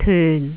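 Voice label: "tyun4", Cantonese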